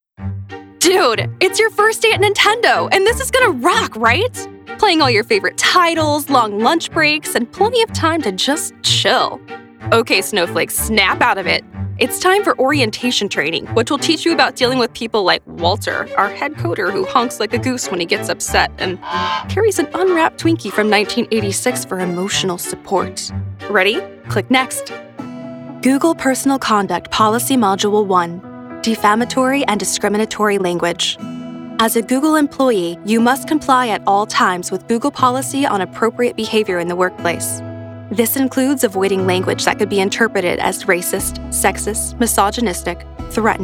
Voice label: e-learning

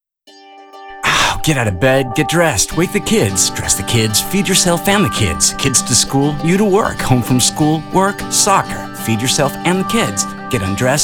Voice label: Explainer English

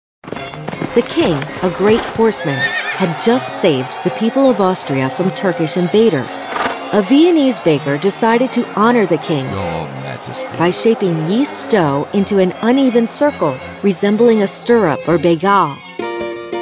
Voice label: Narration

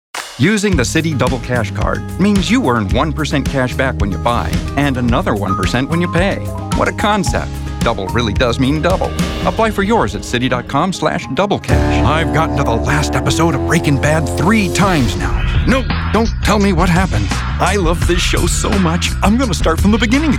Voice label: Commercials

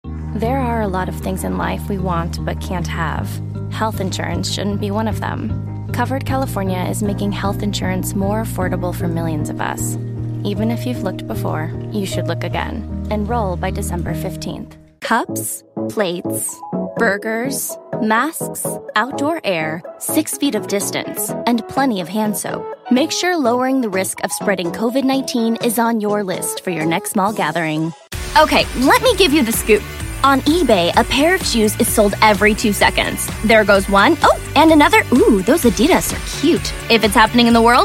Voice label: commercial

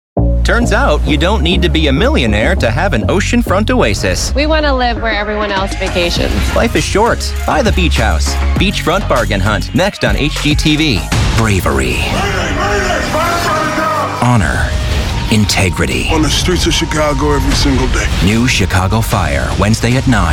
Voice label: Promo

